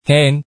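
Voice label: "hên", Hakka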